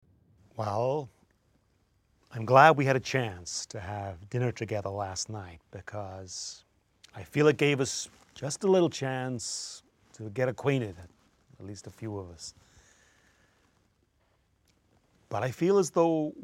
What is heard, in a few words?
US accent, voice over